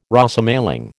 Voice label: "Rosemaling", American

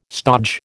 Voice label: "stodge", American

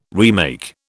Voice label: "remake", British

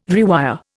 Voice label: "rewire", Indian

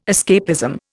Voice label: "escapism", Indian

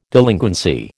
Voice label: "delinquency", American

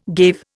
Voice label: "gave", Indian

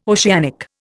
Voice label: "oceanic", Indian

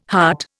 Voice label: "hut", Indian

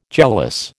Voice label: "jealous", American

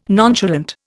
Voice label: "nonchalant", Indian

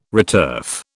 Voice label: "returf", British

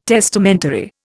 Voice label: "testamentary", Indian

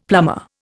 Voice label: "plumber", Indian; Dictionary

